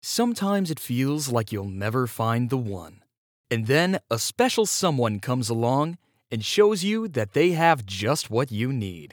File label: Soothing